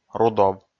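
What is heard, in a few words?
"рудов", Komi, male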